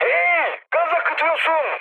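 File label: Turkish damaged water, mood high, speech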